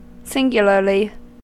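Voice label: "singularly" English, US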